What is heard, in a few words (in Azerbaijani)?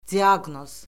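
"diaqnoz", Azerbaijani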